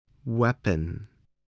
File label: "weapon", spelling